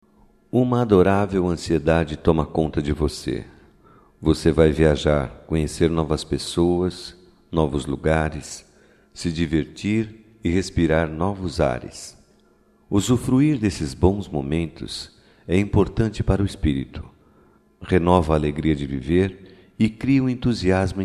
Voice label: BOA VIAGEM NEUTRA M, Telemensagens

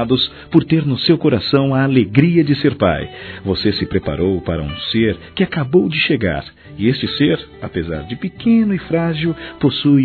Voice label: Parabéns Papai Masculino; Telemensagens; Felicitações; Telemensagens 2017

